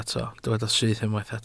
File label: "syth", Welsh